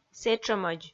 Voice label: "сэтшӧмӧдз", Komi

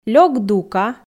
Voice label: лёк дука, f

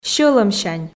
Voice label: "сьӧлӧмсянь", Komi

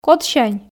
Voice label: "кодсянь", Komi

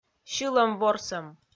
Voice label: "сьылӧм-ворсӧм", Komi

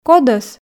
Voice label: "кодӧс", Komi

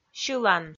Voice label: "сьылан", Komi

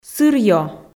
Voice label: "сырьё", Komi

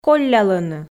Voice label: "коллялӧны", Komi

